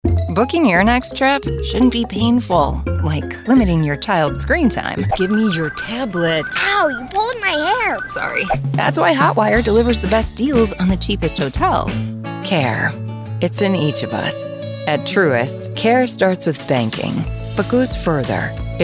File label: commercial